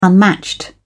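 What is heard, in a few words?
"unmatched", English, British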